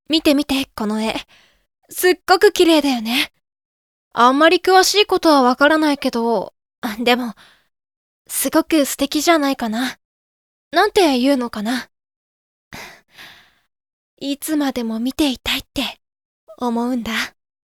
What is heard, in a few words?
boy